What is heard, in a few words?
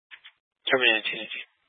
Sheriff Dispatch; Mesa County